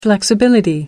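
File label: "flexibility", British English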